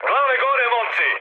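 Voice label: Serbian near waypoint mood high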